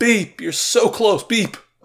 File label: Voices exasperated; The Looker